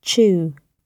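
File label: "chew" British English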